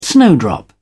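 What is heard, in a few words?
"snowdrop", British English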